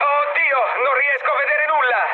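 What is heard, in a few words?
Italian searchlite mood med, speech; War Thunder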